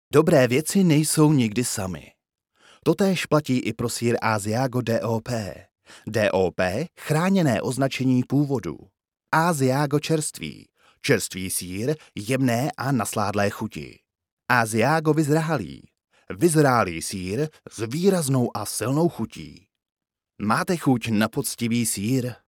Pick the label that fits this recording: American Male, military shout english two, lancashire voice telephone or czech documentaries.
czech documentaries